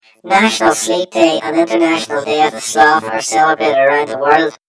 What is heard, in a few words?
story voiceover; yumpod